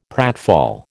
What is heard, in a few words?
"pratfall", American